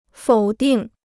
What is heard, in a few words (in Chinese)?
"否定", Chinese